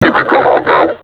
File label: taunt, vo combine suppressor; Half Life Alyx